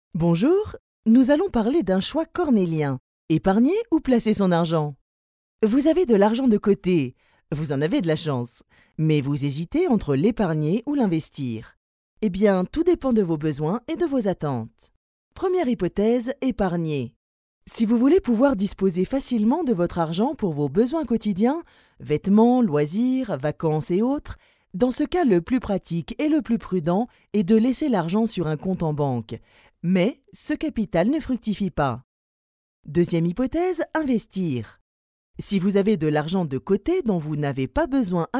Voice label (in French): Explicatif naturel; AXA